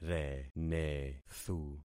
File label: "renethu", concat syllables; Affixing experiment